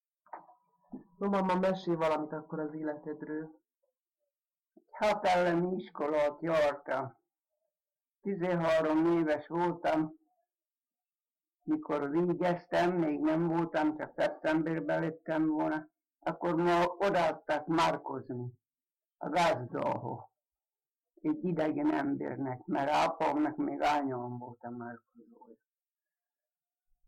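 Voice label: dialect collection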